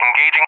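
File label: "engaging" English, speech; DCS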